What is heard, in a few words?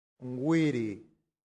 "viri", latin; santillana